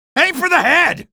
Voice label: alert zombie, male